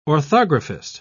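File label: "orthographist", British English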